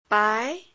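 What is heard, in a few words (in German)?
Phoneme, chinesisch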